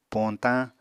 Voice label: "poñtañ", Apatani; Material Culture